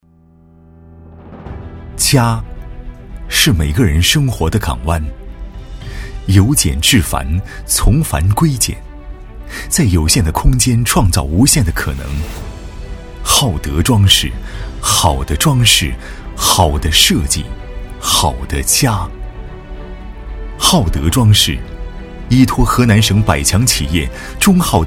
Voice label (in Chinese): 男国 宣传片 企业 装饰宣传片 浑厚; 浩德装饰宣传片